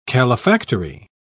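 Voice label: "calefactory", English, British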